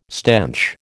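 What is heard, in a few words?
"stench", American English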